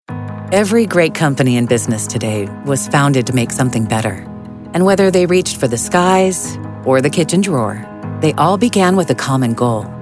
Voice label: Corporate Narration